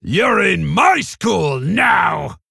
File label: Male Orc Play